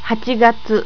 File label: "hachigatsu", japanese